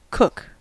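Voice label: "cook", US English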